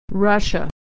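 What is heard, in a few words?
"Russia", American English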